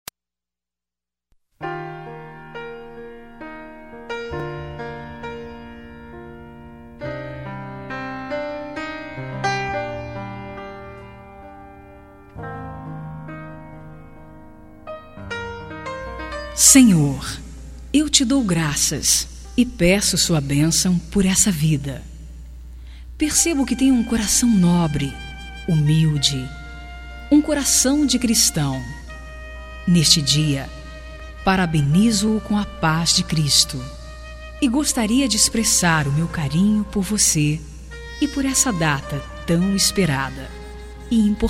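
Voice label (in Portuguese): Evangélica Aniversário Social, female, telemensagens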